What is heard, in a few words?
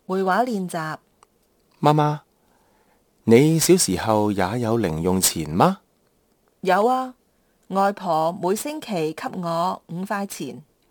conversation, Kantonese